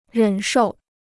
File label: "忍受", Chinese